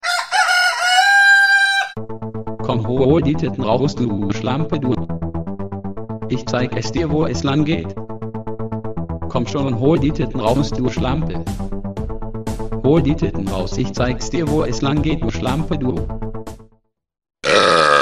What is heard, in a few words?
german funny